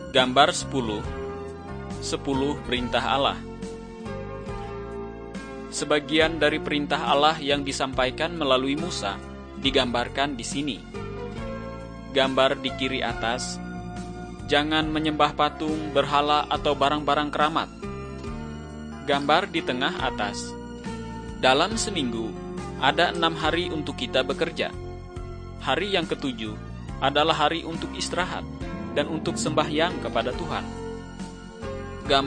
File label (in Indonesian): kabar baik good news bahasa indonesia